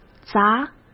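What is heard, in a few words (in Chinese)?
"砸", Mandarin Chinese; hsk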